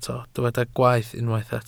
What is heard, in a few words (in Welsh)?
"gwaeth", Welsh